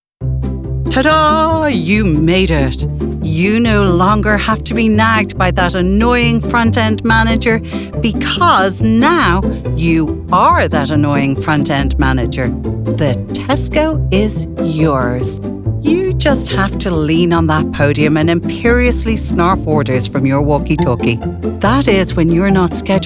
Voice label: E Learning